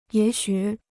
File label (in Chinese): "也许", Chinese